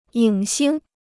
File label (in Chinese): "影星", Chinese